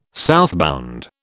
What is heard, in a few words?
"southbound", British